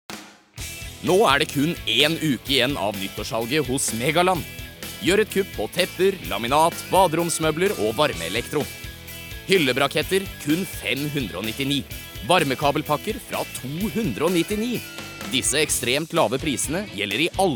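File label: Norwegian hard sell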